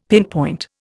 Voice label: "pinpoint", Indian; Dictionary

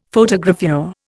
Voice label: "Photogravure", Indian; Dictionary

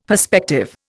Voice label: "perspective", Indian; Dictionary

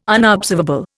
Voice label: "unobservable", Indian

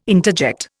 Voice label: "interject", Indian

